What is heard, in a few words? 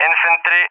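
"infantry", English, RUSSIA; DCS; Allied Flight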